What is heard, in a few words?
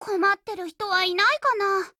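operation begin, Japanese; VP9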